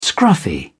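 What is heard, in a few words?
"scruffy", English, British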